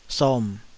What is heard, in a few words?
"som3", Taiwanese syllable